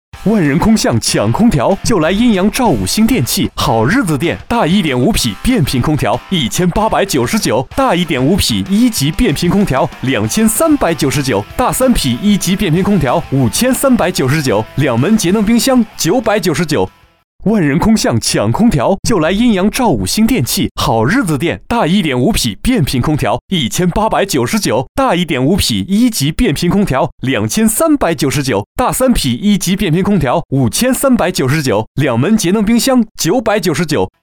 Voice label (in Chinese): 男, 促销, 空调广告